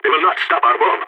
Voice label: bomb ticking down, balkan; Counter-Strike Global Offensive